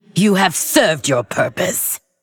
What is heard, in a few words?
Female Lich Soul Rend